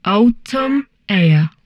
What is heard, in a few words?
humanoid portrait female; Stellaris; humanoids